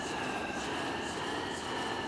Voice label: mage lord pretend hurt loop; Hollow Knight